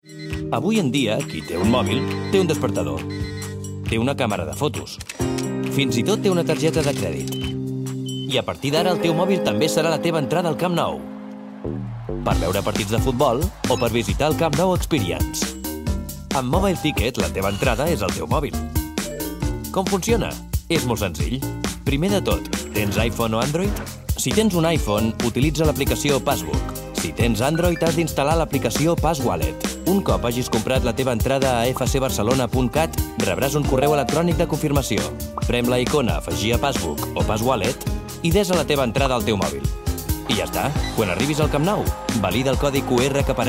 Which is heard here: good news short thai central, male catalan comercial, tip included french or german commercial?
male catalan comercial